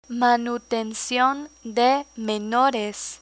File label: "manutencion de menores", Spanish; legal terms; learn spanish